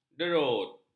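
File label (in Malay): "derut", Malay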